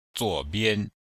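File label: "zuo3bian5", chinese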